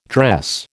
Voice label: "dress", American